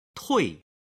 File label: "tui", chinese